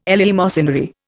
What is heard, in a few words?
"eleemosynary", Indian